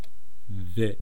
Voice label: "vi", East Cree syllabics; language

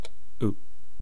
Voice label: "u", east cree syllabics

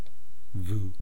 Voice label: "vwaa", east cree syllabics

